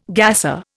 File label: "gasser", Indian